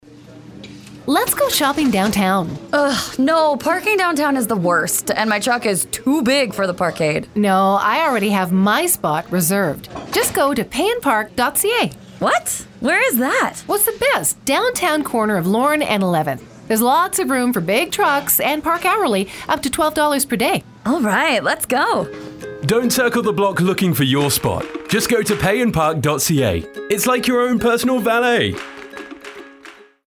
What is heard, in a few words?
radio ad; paynpark